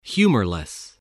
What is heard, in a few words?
"humorless", voice spelling